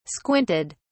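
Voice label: "squinted", British English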